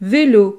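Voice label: "velo", French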